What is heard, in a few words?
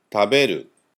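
"taberu", Japanese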